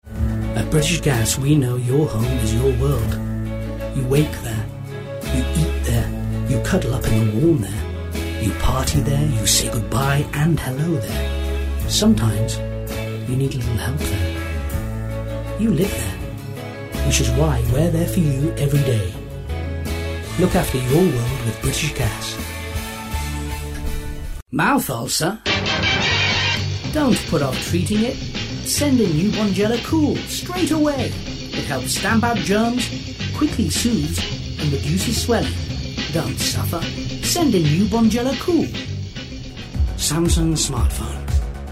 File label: Commercial Reel